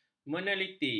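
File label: "meneliti", Malay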